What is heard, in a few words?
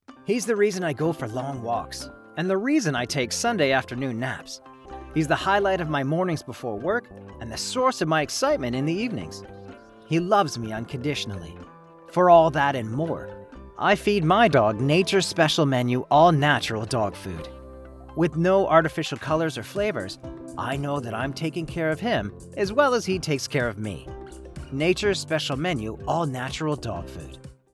Ad w music; Natures Special